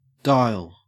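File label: "dial", Australian English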